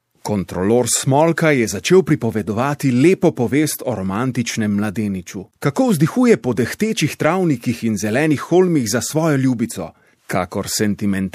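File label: slovenian male narration; voice demos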